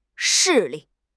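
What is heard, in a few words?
"势力", Chinese; HSK6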